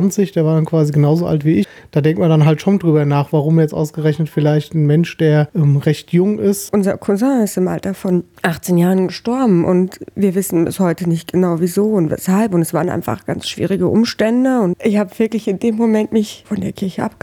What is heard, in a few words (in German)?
Umfrage Zweifel; Umfrage zum Thema "Wann komme ins Zweifeln?"; Hit from Heaven